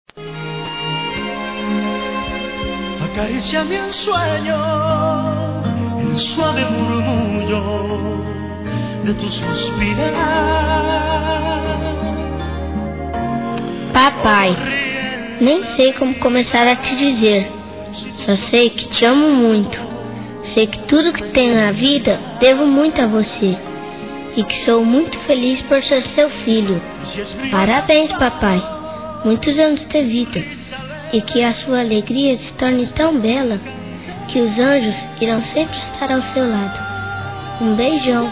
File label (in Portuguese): Aniversário Pai Infantil Masculino; Telemensagens 2017; Aniversários